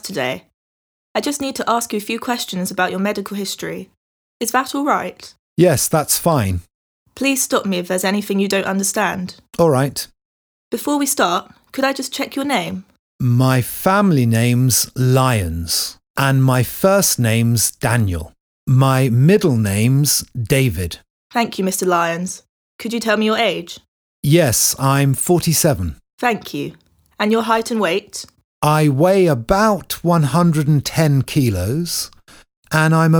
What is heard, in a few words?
Conversation; Bedside Manner Beginner